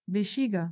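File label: "vesihiga", Occitan Gascon; Prêts pour l’Òc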